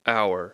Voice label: "hour", English